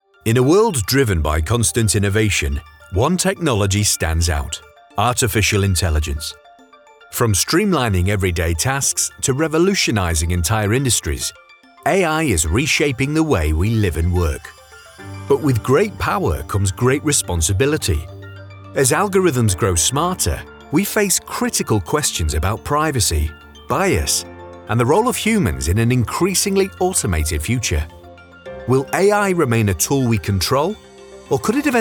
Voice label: narrative

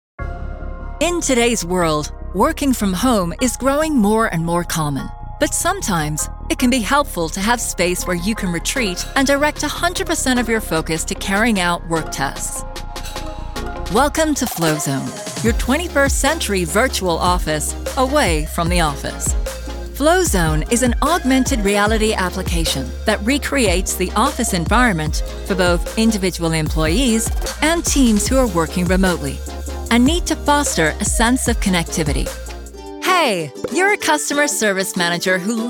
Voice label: US Corporate Explainer

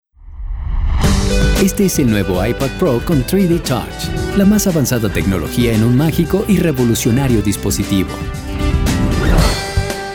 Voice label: Male Spanish Comercial

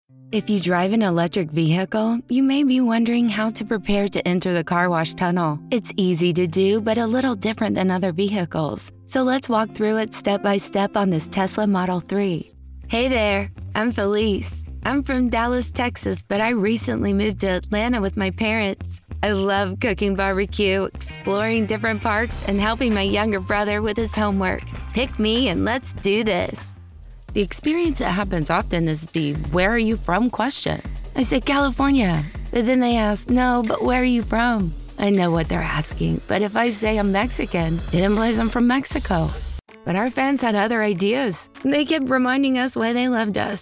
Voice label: Latina E-Learning

